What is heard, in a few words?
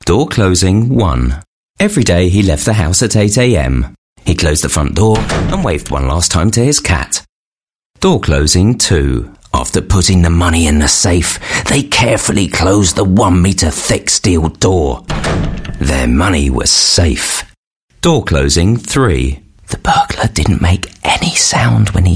UK English Creative Session